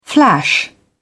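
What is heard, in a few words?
"flash back", British English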